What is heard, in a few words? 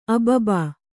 "ಅಬಬ", Kannada